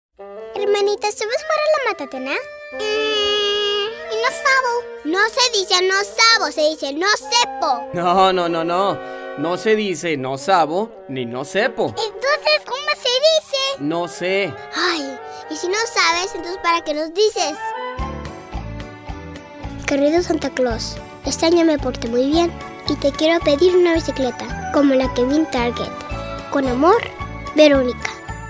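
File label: Spanish, kids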